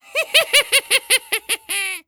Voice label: Zombie